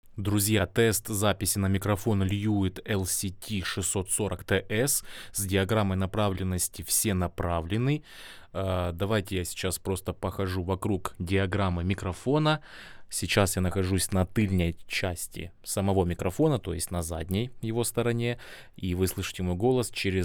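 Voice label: lewitt lct 640 ts omnidirectional; vsenapravlennyj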